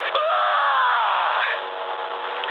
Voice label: damaged pilot, speech ru

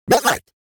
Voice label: robot Pilots Ready; World Of Warships

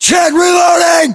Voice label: US inform reloading, battlechatter, english; localized english

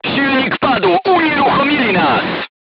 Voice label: voice message engine destroyed, polish

